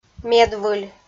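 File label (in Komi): "медвыль", Komi-Zyrian, female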